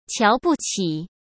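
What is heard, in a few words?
"瞧不起", Mandarin Chinese; hsk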